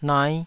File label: "nai5", Taiwanese